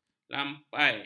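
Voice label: "lampai", Malay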